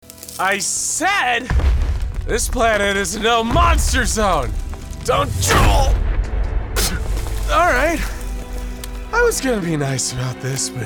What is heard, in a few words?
Animation, voice over